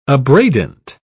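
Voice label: "abradant", English, British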